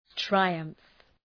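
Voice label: "triumph", English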